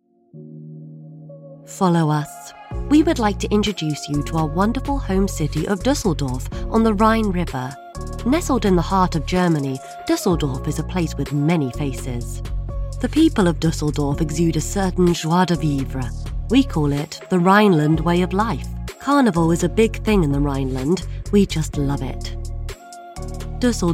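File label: British English, documentary and educational; GoLocalise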